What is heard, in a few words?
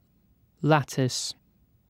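"lattice", British English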